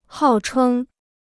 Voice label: "号称", Chinese

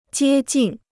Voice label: "接近", Chinese

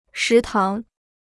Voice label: "食堂", Chinese

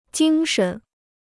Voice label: "精神", Chinese

